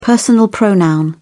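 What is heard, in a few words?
"personal pronoun", British English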